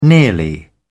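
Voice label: "nearly", British English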